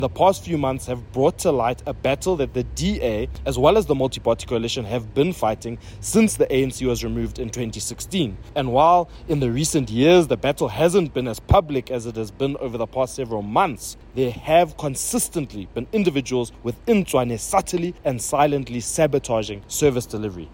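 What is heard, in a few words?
English; Financial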